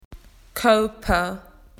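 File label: "body", Australian English; PictureDictionary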